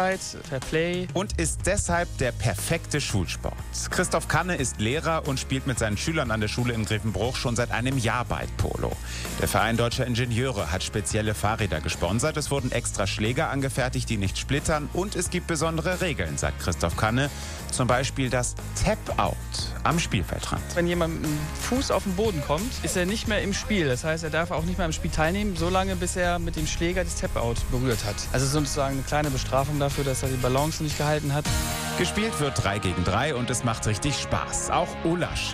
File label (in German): Radio; Radio Koeln; Bike-Polo Praesentation